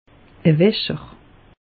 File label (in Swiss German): "wascher", alsace; meteo